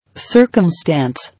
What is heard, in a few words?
"Circumstance", English